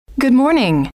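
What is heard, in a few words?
good Morning, female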